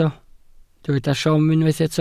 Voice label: "siom", Welsh